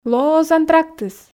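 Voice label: "лоӧ оз антрактыс", female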